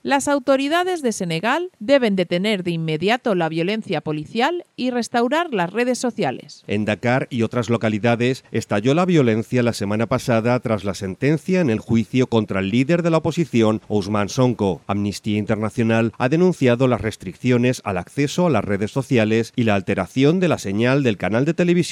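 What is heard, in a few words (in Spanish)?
informativo; senegal violencia policial restriccion rrss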